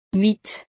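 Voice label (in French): "huit", French; [ˈɥi(t)]; 8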